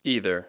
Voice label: "either", English

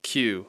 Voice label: "queue", English

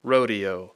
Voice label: "rodeo", English

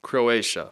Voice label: "Croatia", English

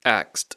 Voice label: "axed", English

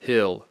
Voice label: "hill", English; Speech Pro

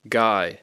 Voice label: "guy", English; Speech Pro

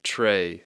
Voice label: "Trey", English, A long sound; Speech Pro

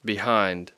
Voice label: "behind", English

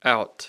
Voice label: "out", English

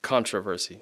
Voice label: "controversy", English

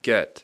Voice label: "get", English

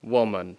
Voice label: "woman", English

